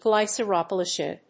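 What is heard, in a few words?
"Glycerophospholipid", English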